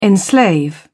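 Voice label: "enslave", British English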